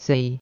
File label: cantonese number 4